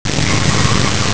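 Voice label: "fei2wo4", Mandarin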